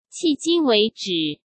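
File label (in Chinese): "迄今为止", Mandarin Chinese; chinese flashcards; hsk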